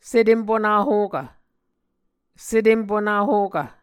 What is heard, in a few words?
"setem-punahokv", Muscogee